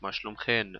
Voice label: how are you plural female in Hebrew